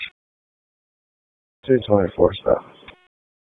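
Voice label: Police Northeast District Disp, radio; Raleigh; wake p25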